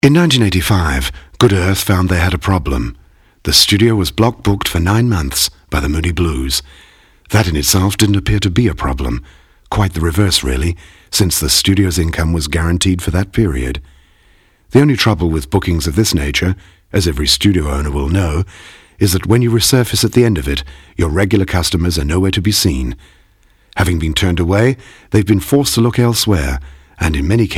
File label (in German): uk englisch